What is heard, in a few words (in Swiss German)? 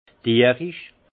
"dierisch", alsace; vin; animal